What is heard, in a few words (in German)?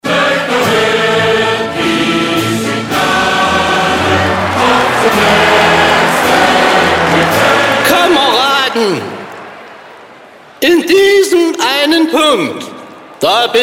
promosprecher; Werbesprecher; stimme sprachprobe sprachdemo